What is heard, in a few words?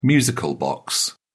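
"music box", British English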